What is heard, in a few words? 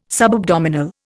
"subabdominal", Indian